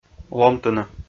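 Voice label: "ломтыны", Komi, male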